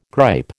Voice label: "gripe", American

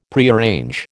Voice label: "Prearrange", American

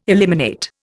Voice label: "eliminate", Indian

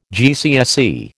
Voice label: "GCSE", American

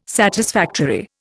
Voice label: "satisfactory", Indian

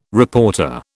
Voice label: "reporter", British

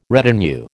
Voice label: "retinue", American

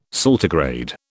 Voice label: "saltigrade", British

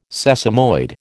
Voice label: "sesamoid", American

